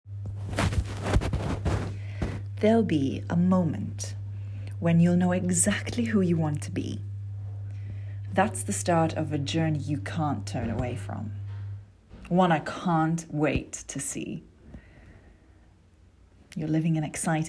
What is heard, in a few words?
commercial EN